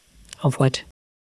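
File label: Voice Acting es, female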